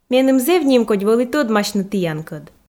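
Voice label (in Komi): меным зэв нимкодь вӧлі тӧдмасьны тіянкӧд, Komi, female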